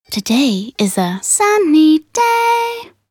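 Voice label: voc en weather sunny, English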